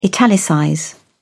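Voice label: "italicize", British English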